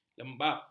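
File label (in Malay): "lembap", Malay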